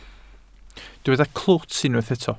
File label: "clwt", Welsh